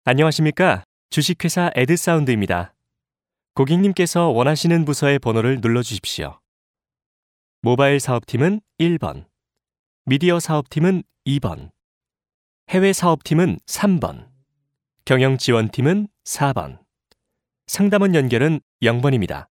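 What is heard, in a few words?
Korean male; ARS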